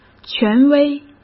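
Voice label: "权威", Mandarin Chinese; hsk; chinese-flashcards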